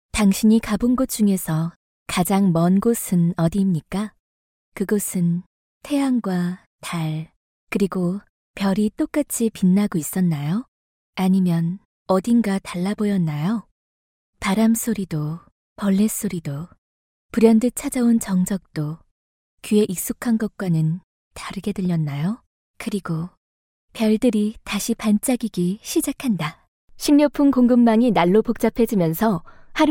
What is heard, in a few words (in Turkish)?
Korece Kadin, seslendirme